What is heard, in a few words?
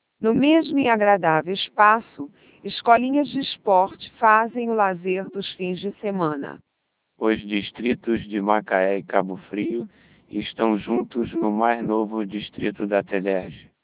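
Portuguese, speech, CODEC2 3200 bps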